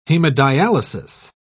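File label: "hemodialysis", British English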